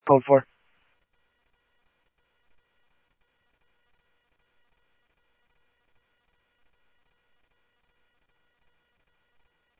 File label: Mesa Simulcast, MESA PD, scanner audio; 2 Centrl; MesaPoliceDep